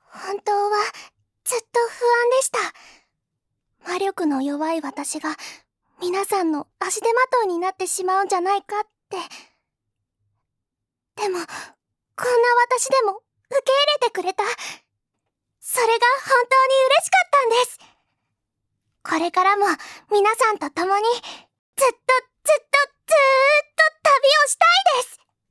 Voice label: little girl